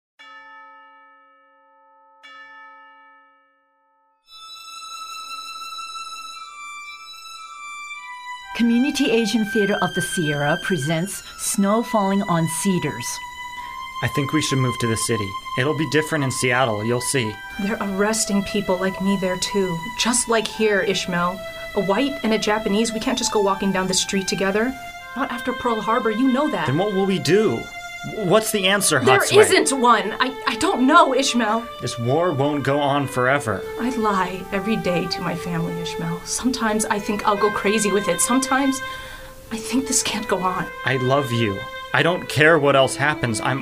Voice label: commercial; KNCO